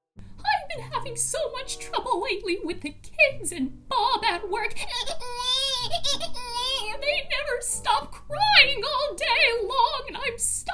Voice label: Animation